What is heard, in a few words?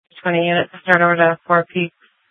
Sheriff, scanner; Shrf Lakes